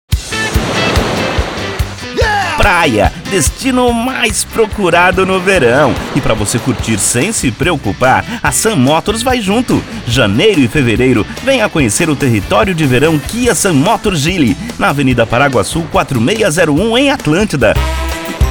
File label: Spot; sun motors